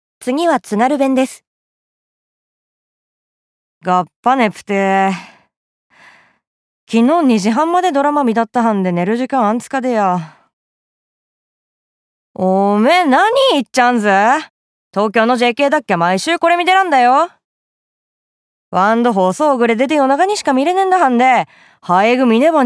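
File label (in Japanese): 方言, 津軽弁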